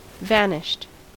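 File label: "vanished", US English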